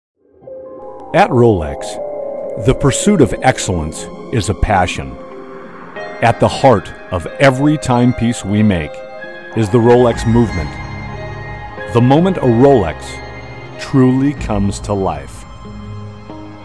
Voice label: audio commercial; ROLEX SUBMARINER